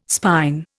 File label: "spine", Indian